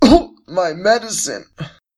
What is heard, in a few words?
npc sick man